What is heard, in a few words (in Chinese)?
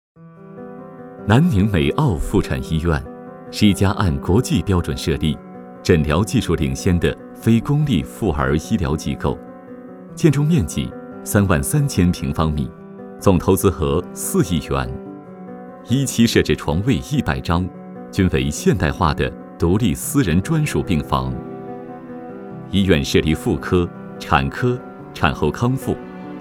温暖 大气 专题